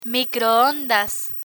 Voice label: "microondas" Spanish; learn spanish

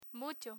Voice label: "mucho" Spanish; learn spanish

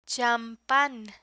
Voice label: "champan", Spanish

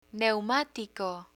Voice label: "neumatico", Spanish; picture dictionary

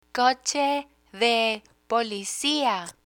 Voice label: "coche de policia", Spanish; law enforcement equipment; learn spanish

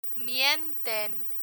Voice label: "mienten", Spanish

